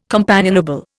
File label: "companionable", Indian